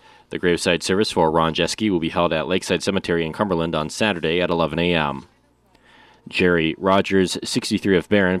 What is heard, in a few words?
Funeral Announcements